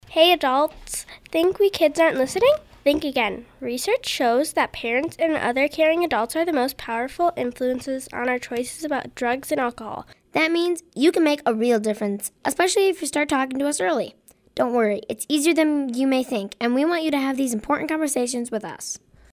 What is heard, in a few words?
Announcement